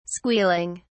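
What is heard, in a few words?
"squealing", British English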